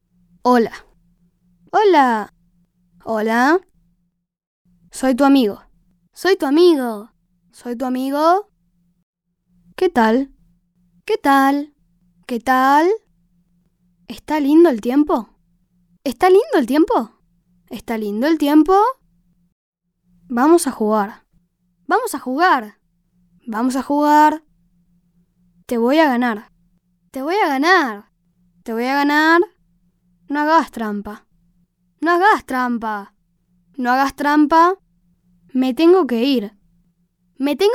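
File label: Spanish Argentina child 12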